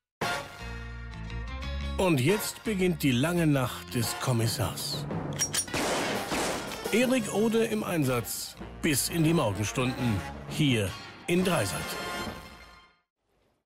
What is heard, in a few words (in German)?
Trailer; Kommissar; 3sat